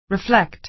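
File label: "reflect", American English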